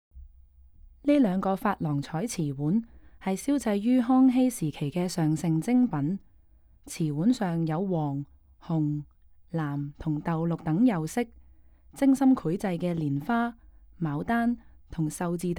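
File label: Cantonese, audio guide; g3-clay-to-treasure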